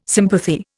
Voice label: "sympathy", Indian